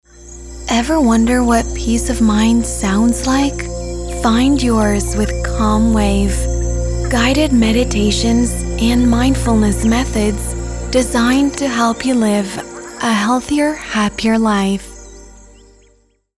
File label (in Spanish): SPA ENG Relajante Meditativo